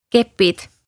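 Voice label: "Kepid" Estonian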